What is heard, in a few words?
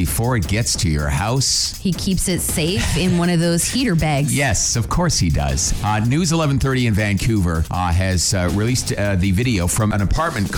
Morning Show Promo; ROCK